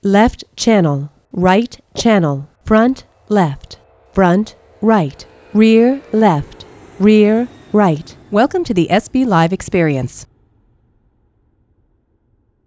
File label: female plane noise